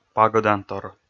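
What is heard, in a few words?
"пагӧдантор", Komi, male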